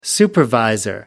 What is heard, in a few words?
"supervisor", en uk